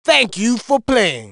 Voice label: Thank You for Playing, announcer; Dance Dance Revolution Extreme; PS2; Music Scroll